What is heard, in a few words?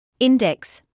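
"index", British English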